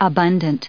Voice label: "abundant", us

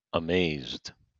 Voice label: "amazed", us

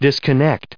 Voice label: "disconnection", en us

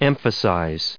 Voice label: "emphasized", us

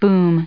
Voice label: "boom", en us

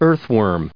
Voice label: "earthworm", us

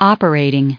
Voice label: "opera", US

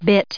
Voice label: "bit", us

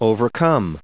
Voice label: "overcoming", us

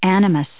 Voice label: "animus", us

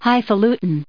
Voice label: "highfalutin", en us

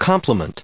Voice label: "complement", us

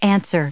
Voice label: "answers", us

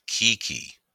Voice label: "kiki", en us

